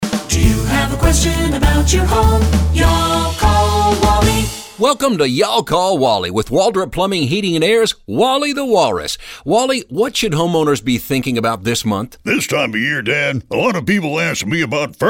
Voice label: radio ads; Furnace Tune Up